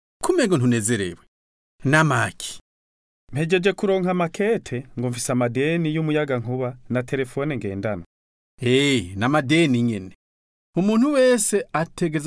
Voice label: paying bills kirundi

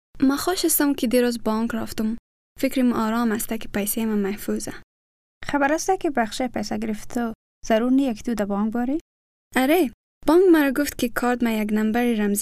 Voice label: hazaragi; banking

